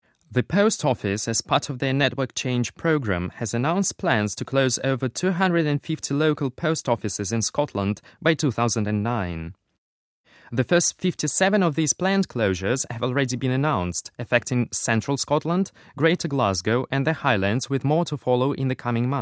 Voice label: british english male